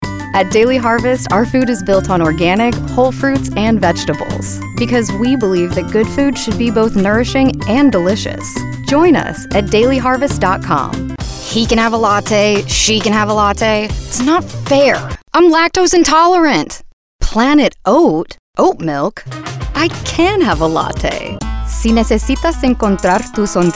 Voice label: Commercial